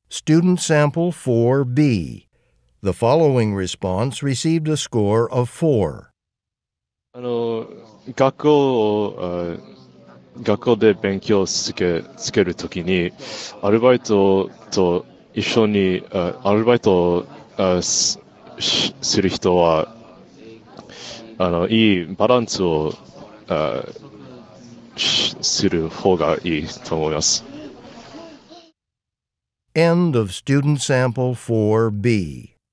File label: japanese convo